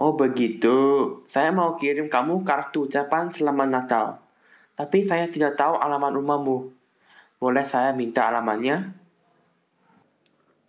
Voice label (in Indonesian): Percakapan telepon